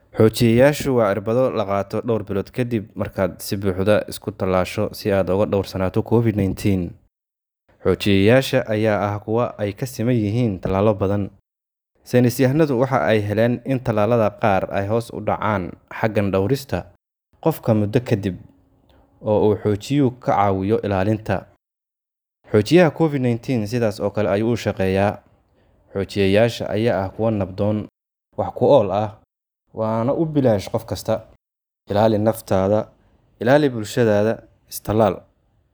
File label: Boosters PSA SOMALI